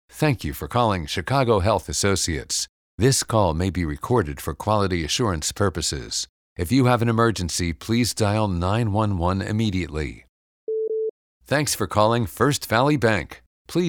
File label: english american ivr